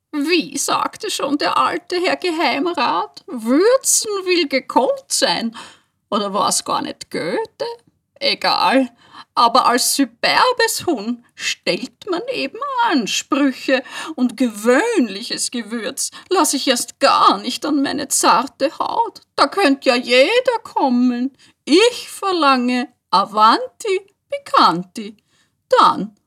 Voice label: Werbung; Avanti Pikanti; Broadcast Master

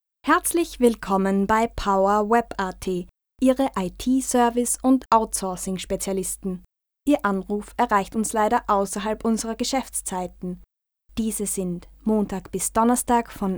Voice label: Telefonansage

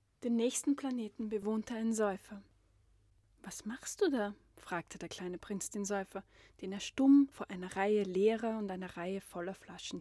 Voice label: Hörbuch; Kleiner Prinz

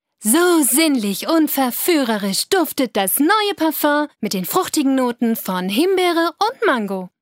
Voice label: Werbung

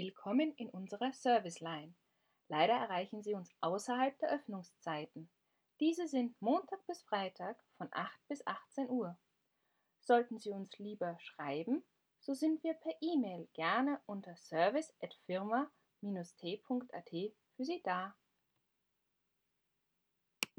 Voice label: Anrufbeantworter

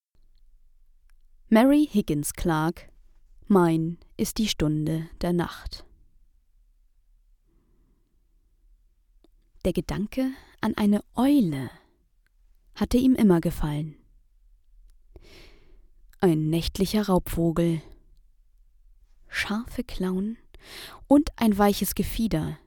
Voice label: Hörbuch

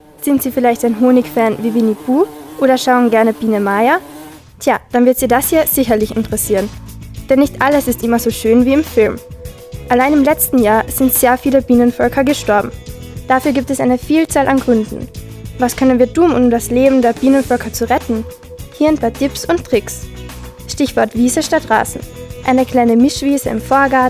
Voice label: Radiobeitrag

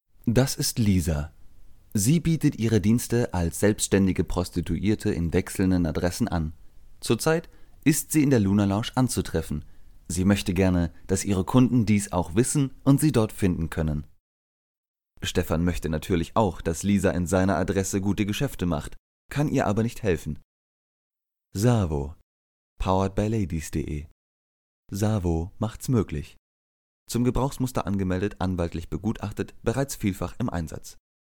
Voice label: Hoerprobe Erklaervideo; SAVO

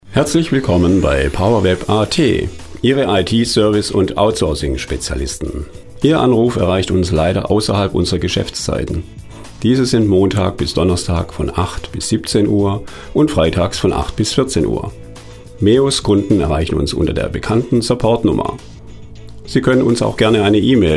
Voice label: Telefonschleife Anrufbeantworter

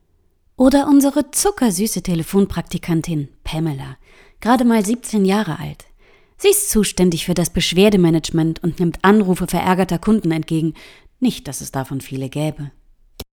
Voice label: Ansage

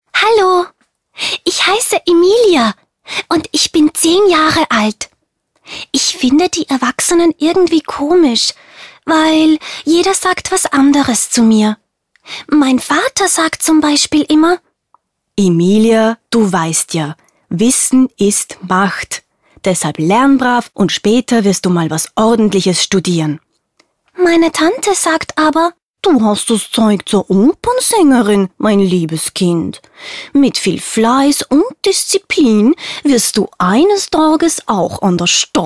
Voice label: kinderstimme

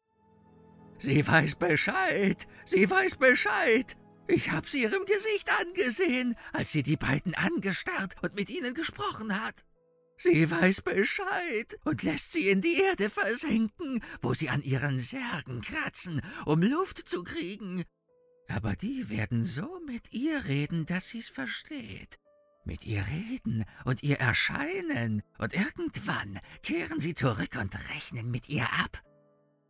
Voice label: Hoerprobe Dorftrottel

